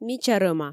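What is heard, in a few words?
"мича рӧма", Komi, female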